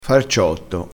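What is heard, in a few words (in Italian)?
"farciotto", vocabolario dialettale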